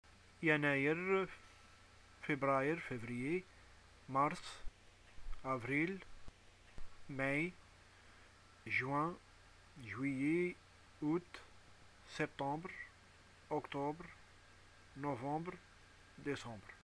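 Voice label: Algerian months, arabic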